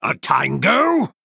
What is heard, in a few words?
Male Human Attack